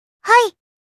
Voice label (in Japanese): "Hai", Kawaii Voice; Greeting